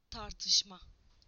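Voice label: "argument", Turkish; PictureDictionary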